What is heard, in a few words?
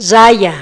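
"raya", Spanish; fonetica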